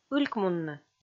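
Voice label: "ылькмунны", Komi, female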